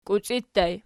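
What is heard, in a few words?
"kiuci" ergative plural, Archi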